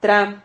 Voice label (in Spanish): "tra", Silabas